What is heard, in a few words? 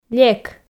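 "лек", Komi, female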